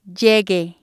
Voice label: "llegue", spanish